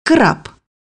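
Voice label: "crab", Russian; bukvar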